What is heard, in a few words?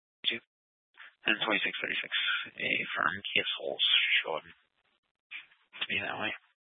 Sheriff Dispatch; Mesa County